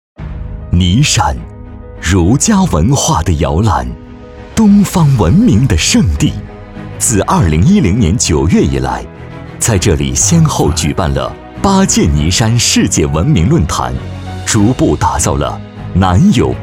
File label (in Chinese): 男 景区 大气稍力度; 尼山